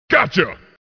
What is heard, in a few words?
Gotcha Sound Effect; Mortal Kombat 3 Trilogy